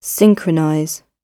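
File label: "synchronize", British English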